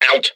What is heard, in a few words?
stormtrooper pain; lego star wars; tfa